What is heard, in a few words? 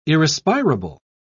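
"irrespirable", British English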